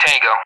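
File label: "Tango" English, speech; Alphabet; DCS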